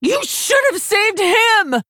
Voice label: Female Orc In Game Turn; Rokara

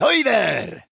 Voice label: Male Dwarf Greetings

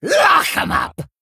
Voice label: Male Kobold Attack

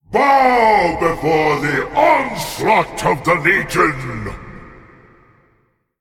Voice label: Male Demonic Titan

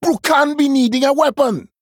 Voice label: Male Troll ERROR NEED WEAPON

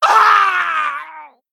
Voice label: Male Kobold Death

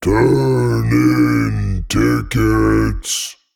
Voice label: Male Abyssal Play

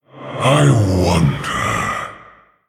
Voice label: Male Orc Thinking

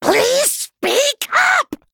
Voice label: Male Gnome Attack

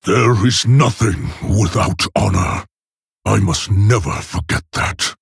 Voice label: Male Orc, Idle

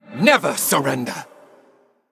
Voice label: Male Ghost Attack

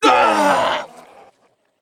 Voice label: Male Observer, Death

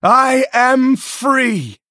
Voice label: Male Human Attack

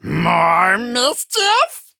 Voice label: Male Imp Play

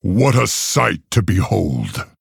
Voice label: Male Orc Wow

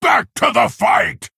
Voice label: Male Centaur Attack

